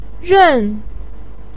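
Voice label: "ren", Mandarin pinyin, tone 4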